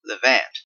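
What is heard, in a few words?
"levant", Canadian English; pronunciations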